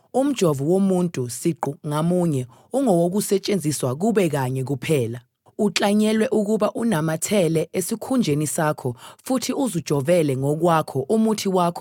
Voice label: Zulu